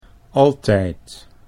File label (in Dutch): "altijd", Dutch